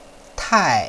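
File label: "tai4", Chinese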